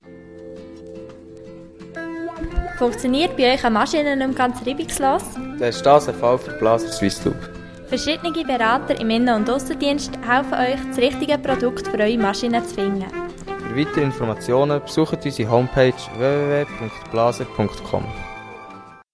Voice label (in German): Jingle; Blaser Swisslube AG